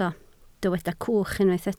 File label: "cwch", Welsh